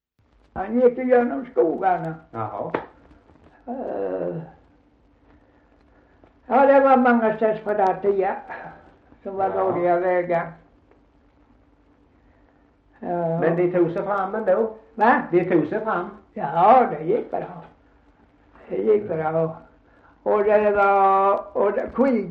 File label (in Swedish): Gunnarp, dialekter; Folkminnen